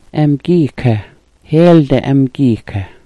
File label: "amgyiika", Smalgyax